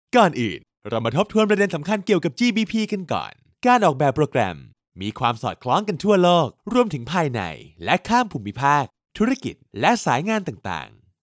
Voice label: 外籍泰语男大气激情

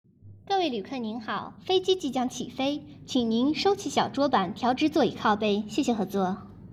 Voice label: 飞机播音员

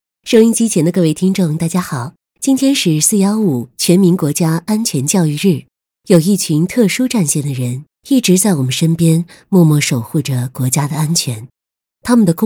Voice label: 仿电台主播知性温柔

